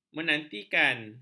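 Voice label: "menantikan", Malay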